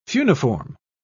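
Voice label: "funiform", British English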